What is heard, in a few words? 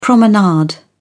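"promenade", British English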